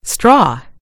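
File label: "straw", British English; gcompris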